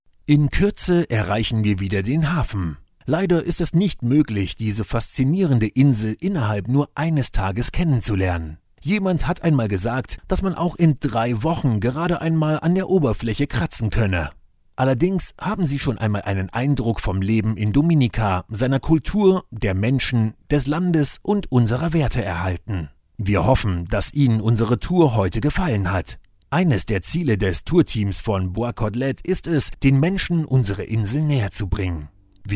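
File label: Audioguide, male; Dominica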